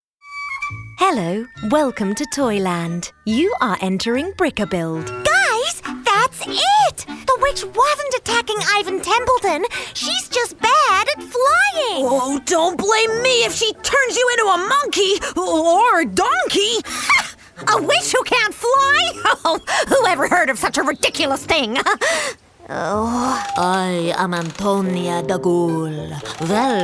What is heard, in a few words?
Animation